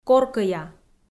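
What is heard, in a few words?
"коркӧя", Komi, female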